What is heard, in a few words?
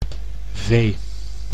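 "vee", Dutch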